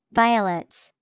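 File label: "Violets", English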